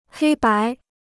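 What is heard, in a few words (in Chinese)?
"黑白" Chinese; 黑白 (hēi bái); black and white; right and wrong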